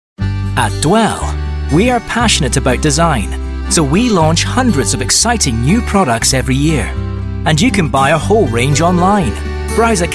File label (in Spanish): Masculino